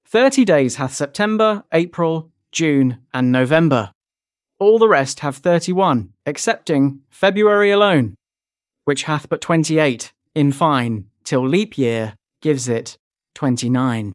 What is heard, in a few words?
male brit; Thirty Days Hath September